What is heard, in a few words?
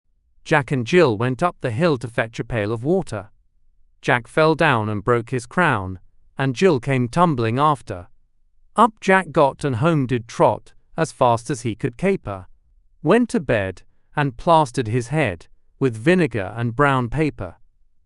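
male British, classic rhymes; Jack and Jill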